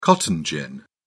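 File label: "cotton gin", British English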